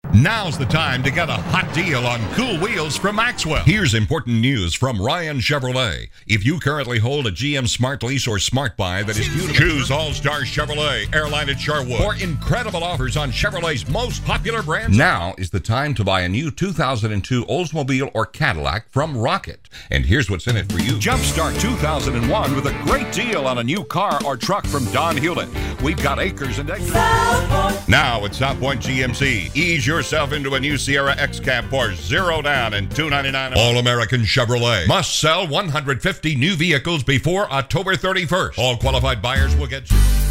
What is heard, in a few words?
Auto Dealers, voiceover